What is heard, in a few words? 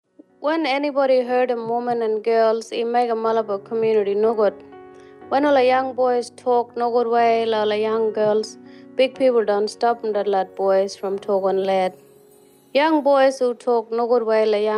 Kimberley Kriol radio